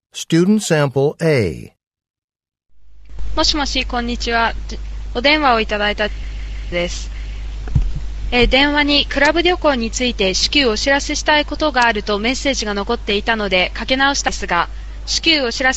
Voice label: Japanese return telephone call; Return Telephone Call 1 Sample A (Score of 6)